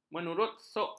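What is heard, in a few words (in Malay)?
"menusukkan", Malay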